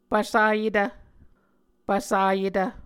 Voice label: "pvsayetv", Muscogee

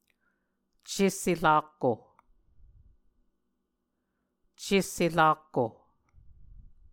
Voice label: "cesse-rakko", muscogee; Mvskoke Words with Repeated Consonants; A Dictionary of Creek/Muskogee; SIL International

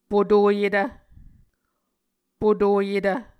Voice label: "potoyetv", Muscogee

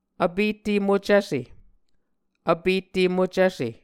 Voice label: "Vpette Mocvse", Muscogee

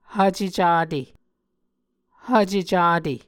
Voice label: "hvce cate", Muscogee; Mvskoke Words with Voicing Consonants; A Dictionary of Creek/Muskogee; SIL International; Onvkv Ohhvyayices

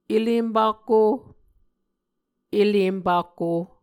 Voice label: "ele empakko", muscogee; elempakko; Mvskoke Words with Repeated Consonants; A Dictionary of Creek/Muskogee; SIL International

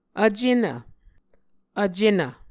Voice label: "vcenv", Muscogee

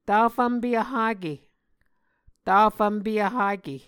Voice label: "tafvmpe vhake", Muscogee

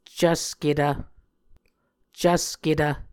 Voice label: "cvsketv", muscogee

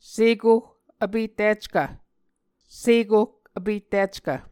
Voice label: "sekoh vpettickv", Muscogee

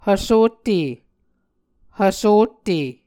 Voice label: "hvsotte", Muscogee

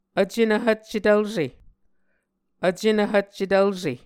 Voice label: "Vcenv Hvcce Tvlse", Muscogee; Mvskoke Words with Repeated Consonants; A Dictionary of Creek/Muskogee; SIL International

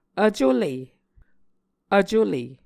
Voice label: "vcule", Muscogee

